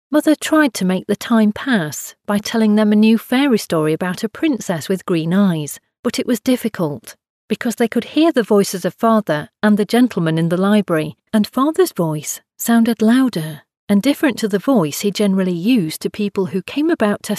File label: english british audiobooks